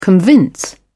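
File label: "convince", British English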